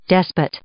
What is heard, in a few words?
"despot", English